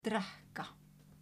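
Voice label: "drekka", Icelandic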